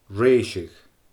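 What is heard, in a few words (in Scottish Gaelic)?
"reeiseadh", Scottish Gaelic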